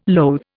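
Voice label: "loathe", Indian English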